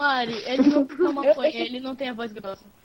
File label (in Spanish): voz grossa